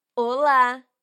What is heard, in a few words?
bonjour, voix